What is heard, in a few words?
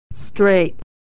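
"straight", American English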